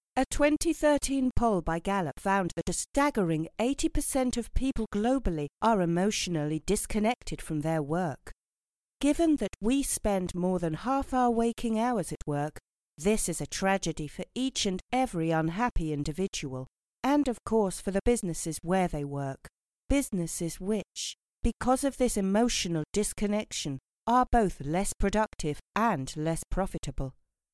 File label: Food Store, Commercial; Promotional